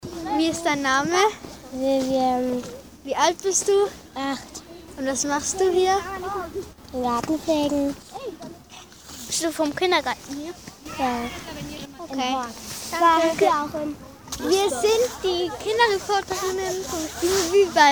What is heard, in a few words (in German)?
interviews Sprachmemo